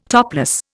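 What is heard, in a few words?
"topper", Indian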